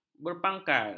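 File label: "berpangkal", Malay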